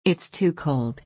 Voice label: fast